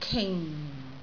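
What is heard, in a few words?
"king4", Cantonese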